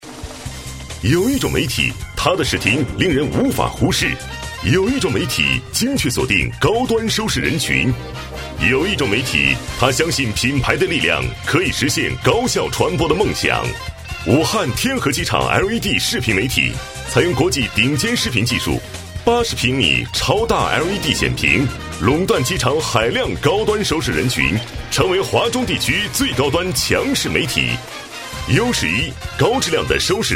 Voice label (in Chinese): 男国 专题 产品 科技; 天河机场LED